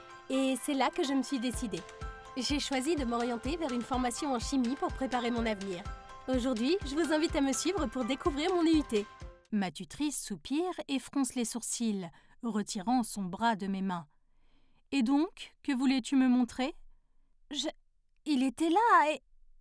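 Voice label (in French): medley, comédienne voix-off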